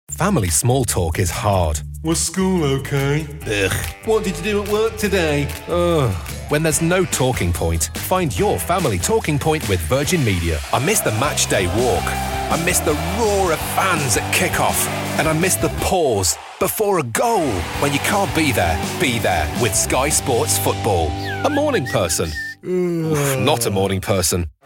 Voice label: commercials, Documentary Upbeat